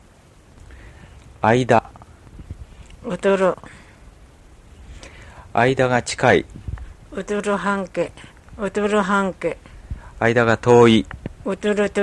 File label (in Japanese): あいだ, Ainu; mukawa